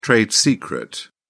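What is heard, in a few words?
"trade secret", British English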